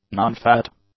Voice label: "nonfat", english; adjectives

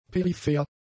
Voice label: "peripher", German; adjectives

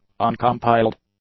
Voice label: "uncompiled", English; adjectives

